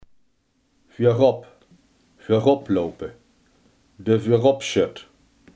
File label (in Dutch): "voorop", limburgse